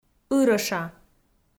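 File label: "ырӧша", Komi, female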